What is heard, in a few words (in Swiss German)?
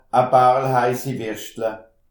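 "paarel", Alsatian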